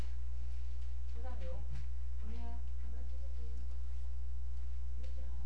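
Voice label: "puppy", spoken word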